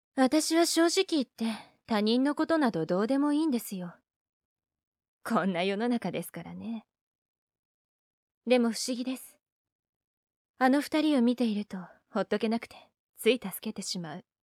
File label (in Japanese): 女っぽく; 同じ台詞で２パターン 男女その１